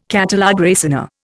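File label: "catalogue raisonné", Indian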